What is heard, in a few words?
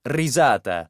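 "risata", Italian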